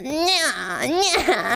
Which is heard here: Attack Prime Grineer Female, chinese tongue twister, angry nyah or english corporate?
angry nyah